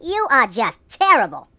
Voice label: "Missed", Mobster